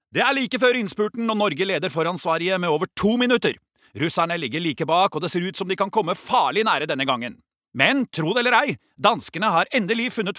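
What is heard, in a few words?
no dub commentator, mann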